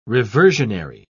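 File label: "reversionary", British English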